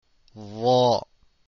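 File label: dhaa, arabic letters; deen path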